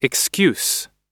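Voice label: "excuse", American English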